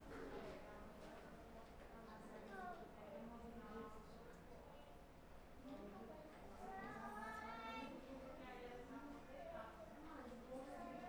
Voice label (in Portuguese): Ambiencia quarto da abrace mulheres conversando, crianca e ar condicionado; voz feminia